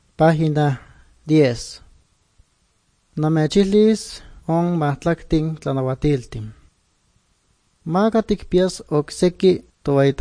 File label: good news, nahuatl guerrero chilapa